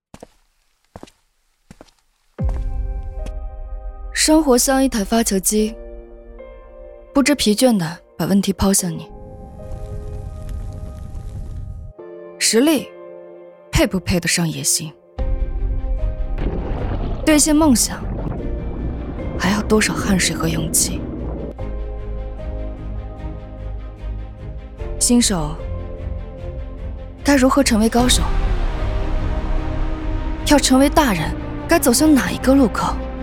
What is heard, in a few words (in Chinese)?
女 角色